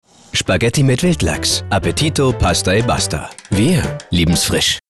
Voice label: Fremdtake Neutral

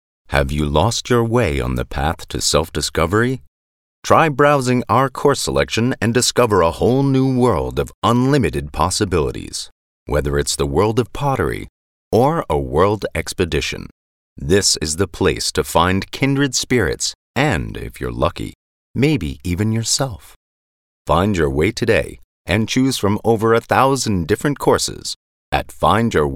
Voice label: Eng US Dynamisch

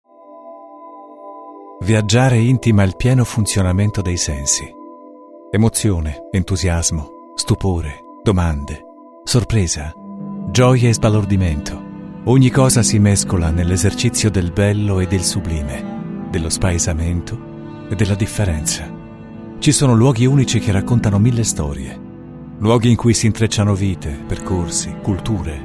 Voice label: IT Fremdtake Variabel

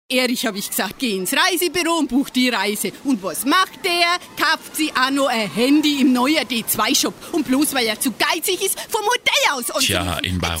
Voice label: Werbung Neutral

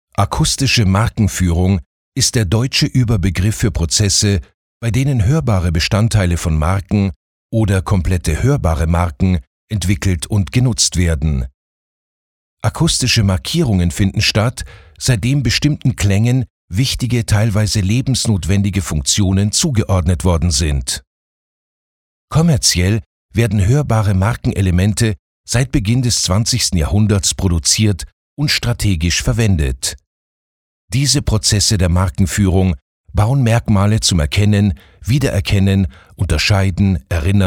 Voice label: Neutral; Akkustische Markenführung

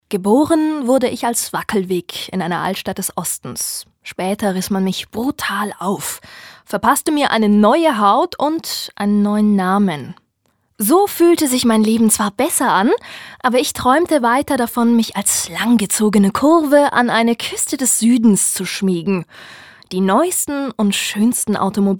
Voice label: Schauspiel Dynamisch

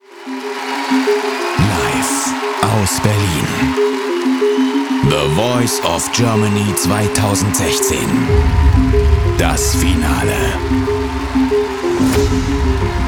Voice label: Dynamisch

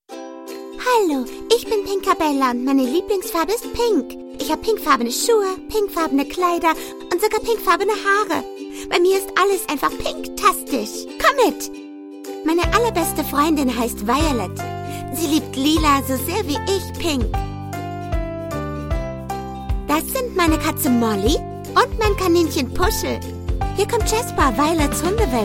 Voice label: Charge, Comic

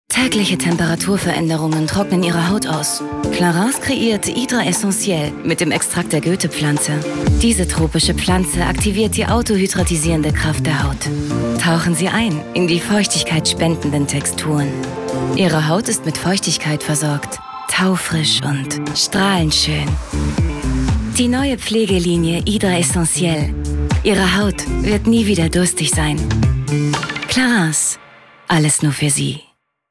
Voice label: Sanft

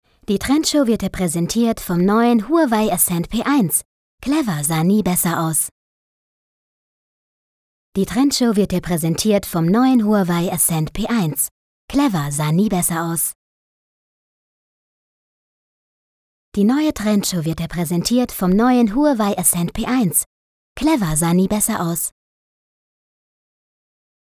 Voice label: Werbung Freundlich

